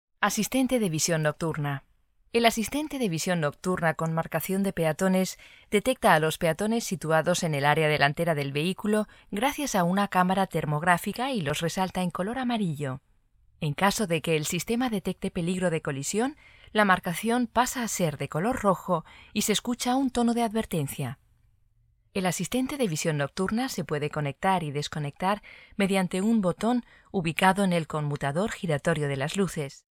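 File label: Spanish female phone voice, es; Audi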